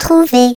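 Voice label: Turret turret active, French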